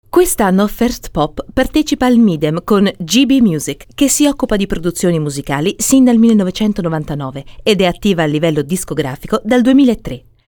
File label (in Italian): ITALIANO IMPOSTATO; FEMMINILE; Speaker Madrelingua